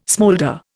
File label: "smoulder", Indian